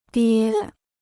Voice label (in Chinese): "爹", Chinese